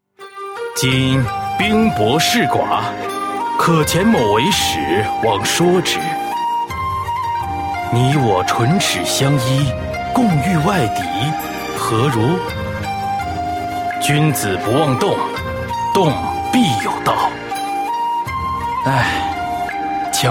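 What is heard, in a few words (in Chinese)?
qingnian, young man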